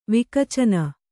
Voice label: "ವಿಕಚನ", kannada

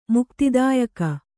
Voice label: "ಮುಕ್ತಿದಾಯಕಿ", Kannada

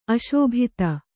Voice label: "ಅಶೋಭಿತ", Kannada

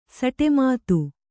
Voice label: "ಸಟೆಮಾತು", Kannada

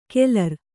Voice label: "ಕೆಲರ್", Kannada

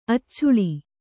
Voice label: "ಅಚ್ಚುಳಿ", Kannada; accuḷi; noun

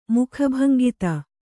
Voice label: "ಮುಖಭಂಗಿತೆ", Kannada

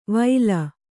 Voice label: "ವೈಲ", Kannada

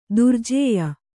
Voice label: "ದುರ್ಜೇಯ", kannada

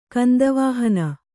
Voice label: "ಕಂದವಾಹನ", Kannada

